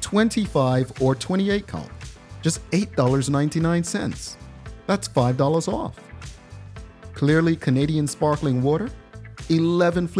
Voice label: Marketplace Spot Grocery Essentials